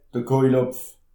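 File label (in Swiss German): "kuejelhopf", Alsatian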